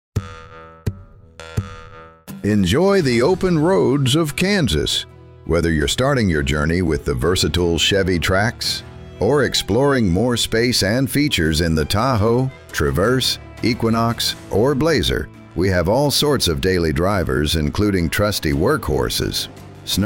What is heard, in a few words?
MALE